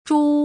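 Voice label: "zhu", Putonghua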